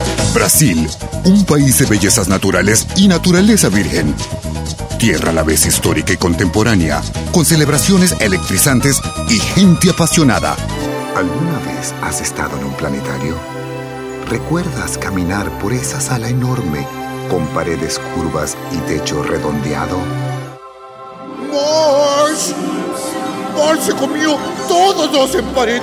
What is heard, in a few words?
languages male spanish